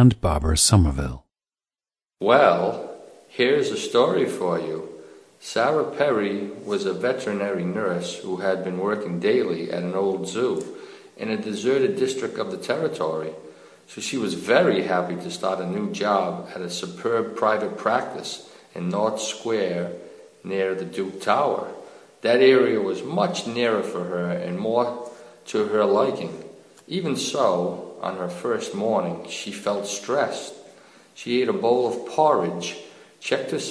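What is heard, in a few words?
rhodeisland, International Dialects of English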